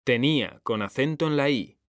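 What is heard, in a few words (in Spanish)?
"tenía", Spanish; mekanta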